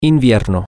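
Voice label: winter, Spanish, male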